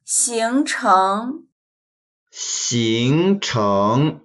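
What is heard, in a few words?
"xing2cheng2", Mandarin Chinese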